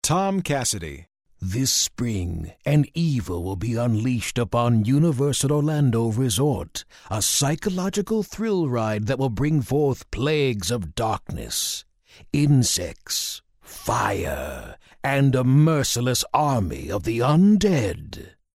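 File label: scary, creepy, spooky voice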